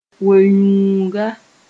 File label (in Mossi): "we-yũuga", moore